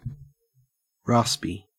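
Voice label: "raspy", Australian English; pronunciations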